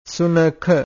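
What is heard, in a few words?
"sunakha", Pali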